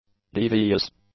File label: "devious", english; adjectives